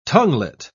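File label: "tonguelet", British English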